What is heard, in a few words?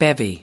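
"bevy", US English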